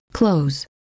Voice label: "close", recordings